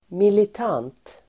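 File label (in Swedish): "militant", Swedish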